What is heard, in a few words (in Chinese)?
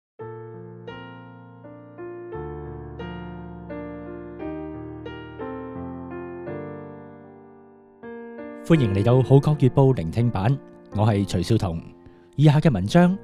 Cantonese